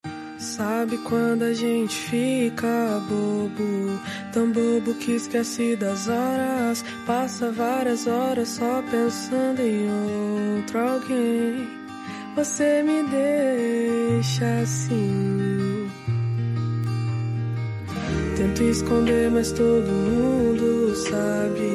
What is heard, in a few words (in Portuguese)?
aniver esposa, Telemensagem de Aniversário de Esposa – Voz Masculina